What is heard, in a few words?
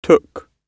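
"took", British English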